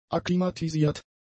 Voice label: "akklimatisiert", german; adjectives

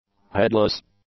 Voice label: "headless", English; adjectives

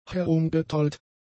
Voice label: "herumgetollt", German; adjectives

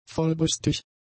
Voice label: "vollbrüstig", German; adjectives

